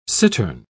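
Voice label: "cittern", British English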